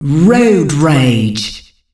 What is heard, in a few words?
Road Rage, Announcer Voice, female; Unreal Tournament; ut2004